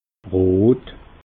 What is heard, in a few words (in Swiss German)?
"rot", alsace